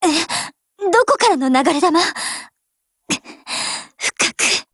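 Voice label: BREAK, Japanese; GF Alarm; AR15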